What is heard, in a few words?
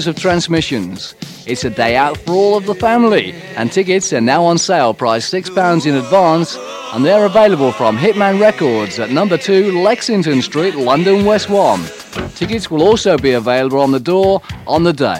Radio Close Down Advert; Horizon; Horizon Radio Adverts 1981 to 1985